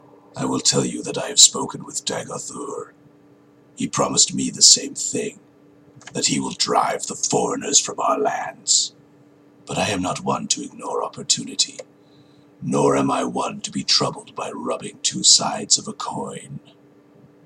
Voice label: sides of a coin, dark elf voice auditions